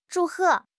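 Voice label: "zhu4 he4", Chinese; HSK4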